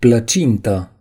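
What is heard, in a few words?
"pie", Romanian; gcompris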